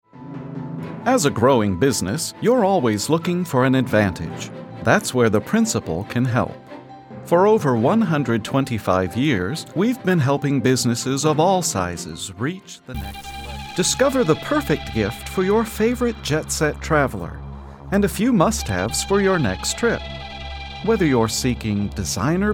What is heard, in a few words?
english males